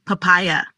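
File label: "Papaya", pronunciation; fruits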